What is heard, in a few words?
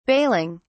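"bailing", British English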